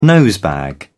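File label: "nosebag", British English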